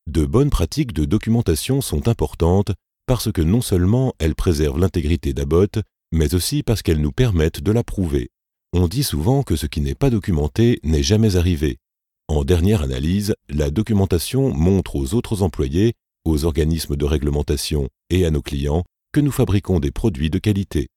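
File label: French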